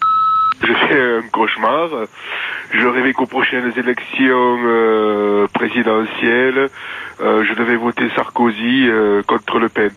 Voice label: répondeur; Nicolas Sarkosy

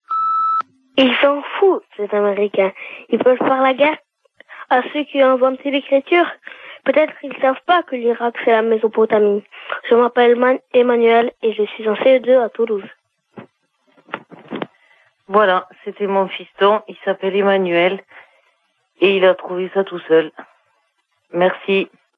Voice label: Irak repondeur

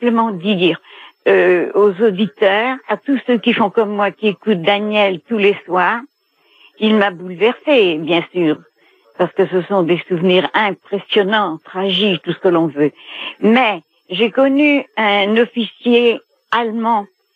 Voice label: Stalingrad repondeur

